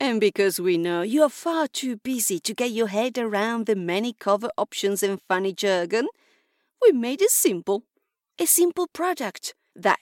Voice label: English sample

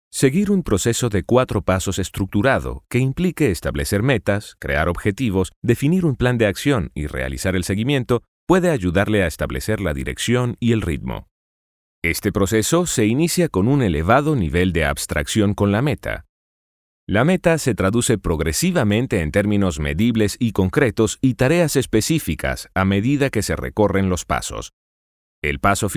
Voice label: eLearning Corporative

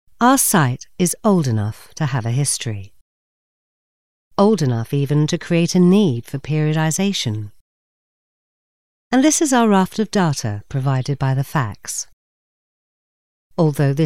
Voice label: Education and phone

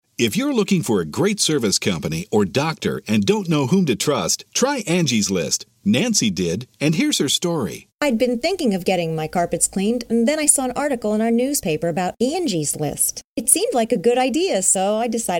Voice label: National Radio Spot Testimonial US English

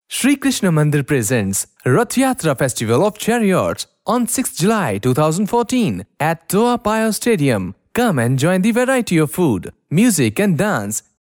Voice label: English; Toa payoh stadium

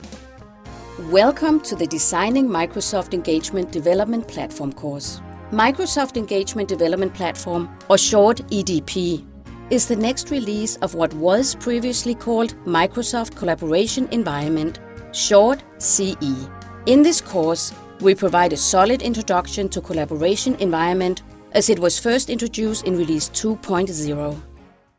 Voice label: E-Learning

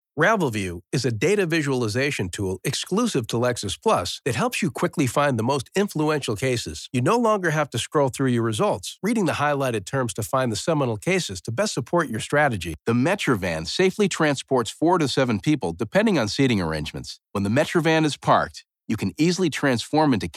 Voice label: E-Learning

